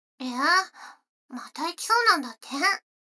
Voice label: 女の子 台詞

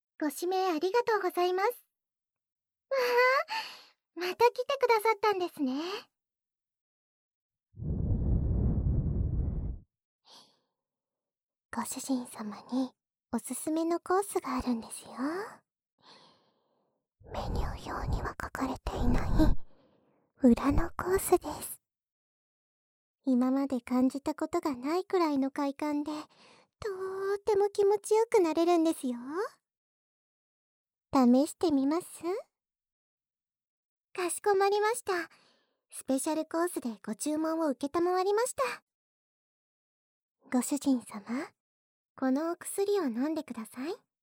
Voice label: すぺしゃるコース(正面責めなし), ASMR

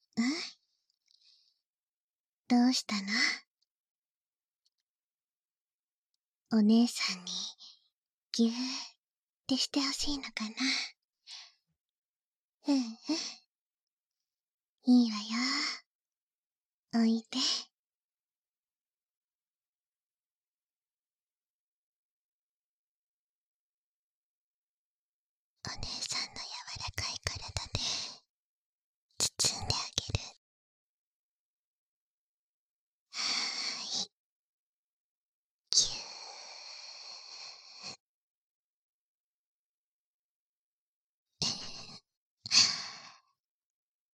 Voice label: 添い寝（バイノーラルマイク収録）; バイノーラルマイク収録 効果音あり; 効果音小差分

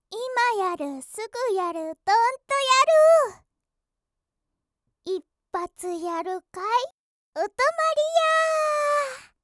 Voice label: タイトルコール

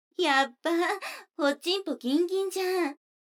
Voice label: 女の子台詞, ASMR

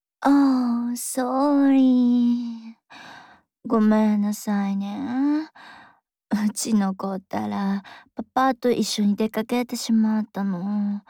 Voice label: 熟れた女, ASMR

